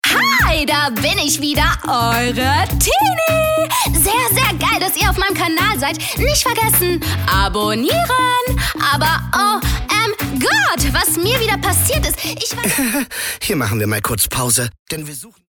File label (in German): W Fremdtake Dynamisch